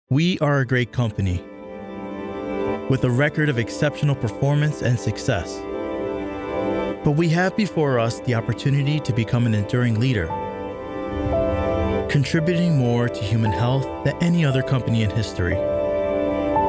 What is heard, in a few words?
Corporate Narration